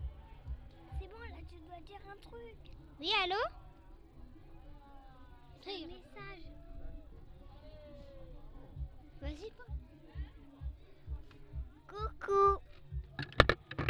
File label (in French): Message trop chou d'enfants